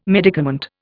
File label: "medicament", Indian